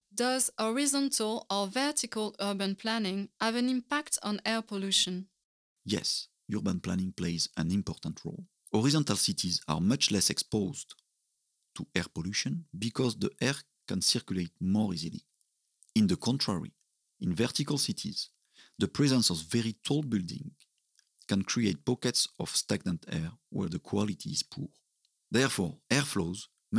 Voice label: podcast